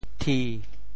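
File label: "thi1", Teochew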